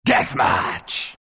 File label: announcer deathmatch, pirate; dlc workshop; capn